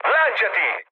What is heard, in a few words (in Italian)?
bailout, mood med, speech it; War Thunder